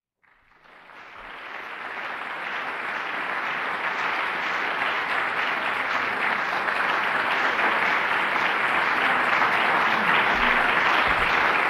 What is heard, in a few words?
reading